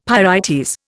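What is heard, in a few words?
"pyrites", Indian English; Dictionary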